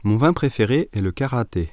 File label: French Sentence